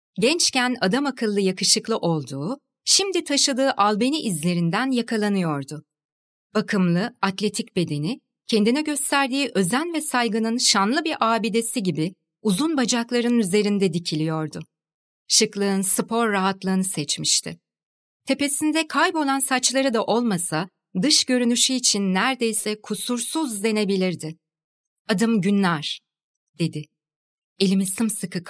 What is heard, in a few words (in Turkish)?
sesli kitap